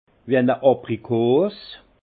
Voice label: "abrikose", alsace; vin; abricot

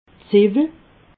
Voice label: "ziwwel", alsace

